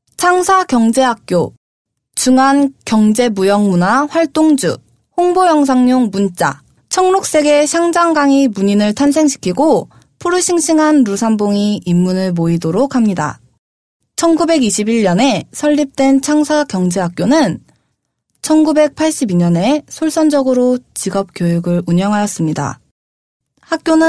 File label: 韩语 Korean Female Native